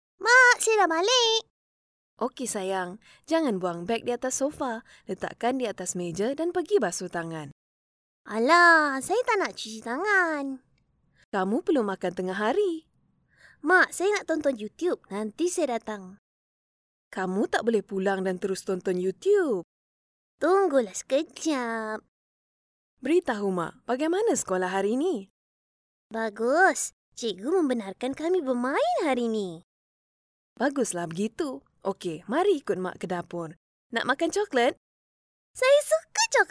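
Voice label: Malay, Malaysia, female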